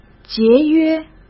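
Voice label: "节约", Mandarin Chinese; chinese-flashcards; hsk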